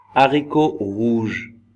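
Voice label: "haricots rouges", French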